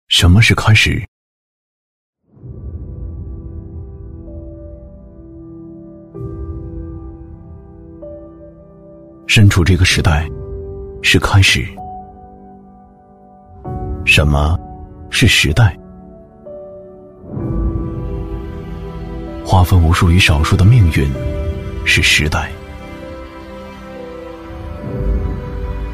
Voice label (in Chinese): 男 房产广告; 杭州壹号院